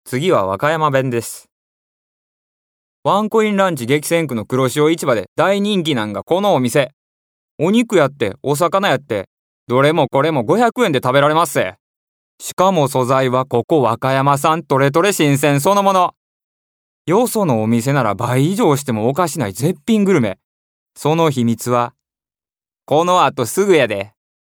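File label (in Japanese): 方言, 和歌山弁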